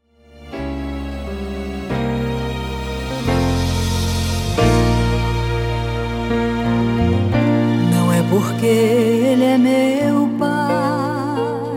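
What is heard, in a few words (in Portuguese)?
aniversario pai plural masculino, telemensagem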